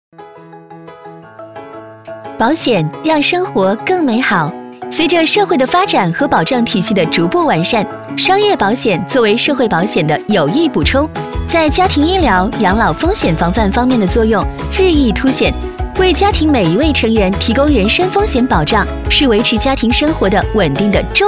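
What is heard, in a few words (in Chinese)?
宣传片, 女, 普通话; 保险; 病毒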